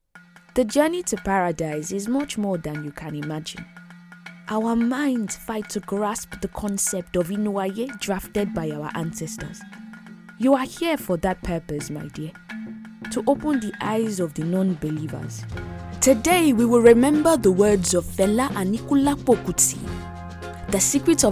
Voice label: english african narration